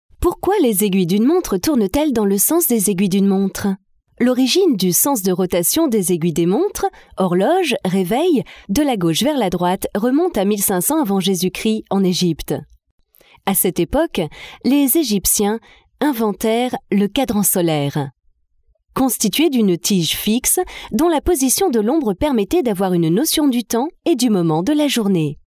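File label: French France, female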